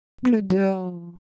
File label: Ewe Motion, IdeoPhones